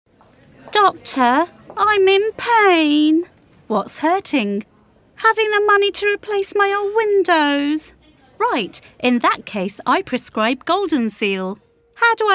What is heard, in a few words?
Commercial Ad funny; Goldenseal